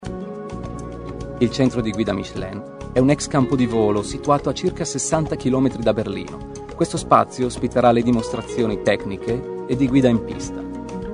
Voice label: Voiceover, italian actor; Lexus; Citylit - London